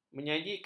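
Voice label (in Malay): "menyajikan", Malay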